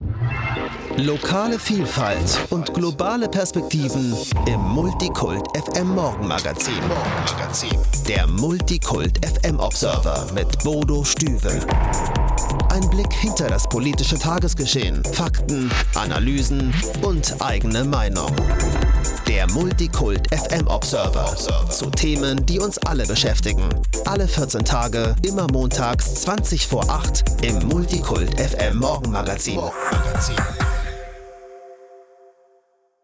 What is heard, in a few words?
trailer